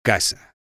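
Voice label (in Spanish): "casa", español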